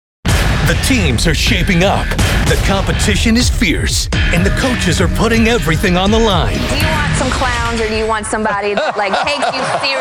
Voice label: promos, men